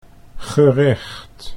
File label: "gerecht", Dutch